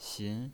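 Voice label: "xin", pinyin voice, second tone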